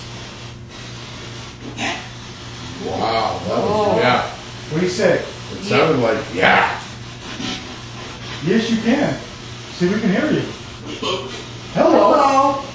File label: Ghost box session male voice Hello